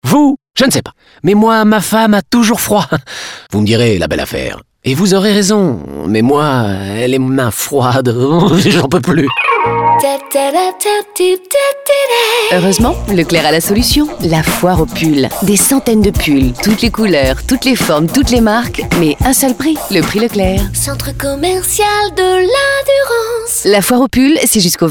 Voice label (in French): radio pub spot